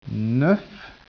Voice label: speech, French